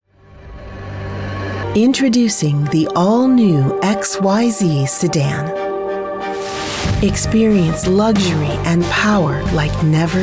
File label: Car Ad